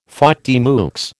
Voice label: "faute de mieux", American